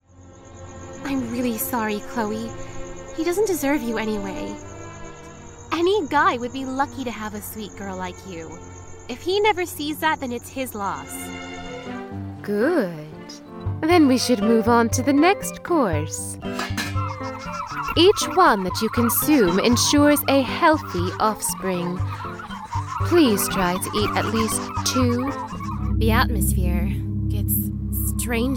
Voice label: Character Sample